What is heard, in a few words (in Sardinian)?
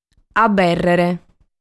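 "Abèrrere", Sardinian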